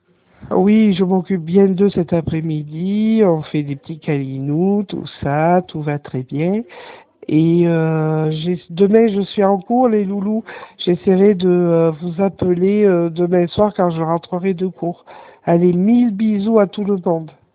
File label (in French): Message vocal